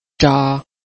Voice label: "zha1", Chinese